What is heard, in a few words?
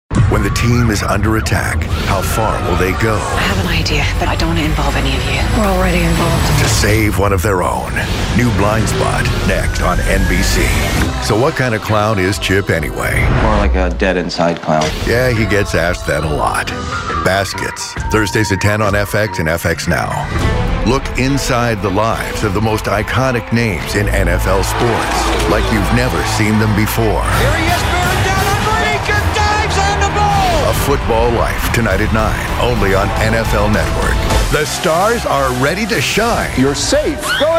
men promos